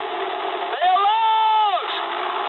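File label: English bailout mood med; War Thunder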